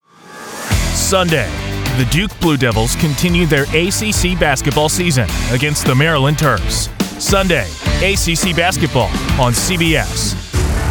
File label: Promo, voiceover